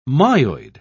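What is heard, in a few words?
"myoid", British English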